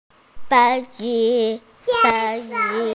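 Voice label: "fei1 ji1", Mandarin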